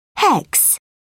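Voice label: "hex", English, British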